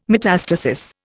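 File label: "metastasis", English, Indian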